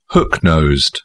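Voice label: "hook nosed", British English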